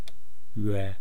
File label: "rwe", east cree syllabics